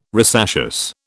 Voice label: "rosaceous", British